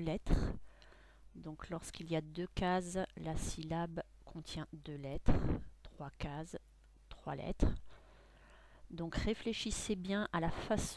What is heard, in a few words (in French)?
dictee syllabes f